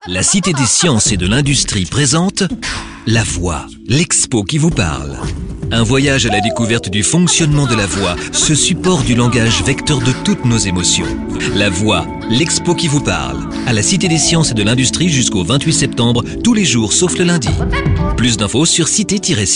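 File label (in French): French, male, commercial; voice demos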